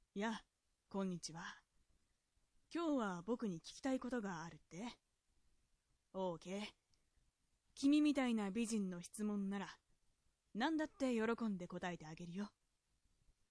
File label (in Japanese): dansei